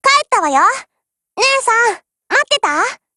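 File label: Japanese, Voice; OPERATIONOVER; GF Alarm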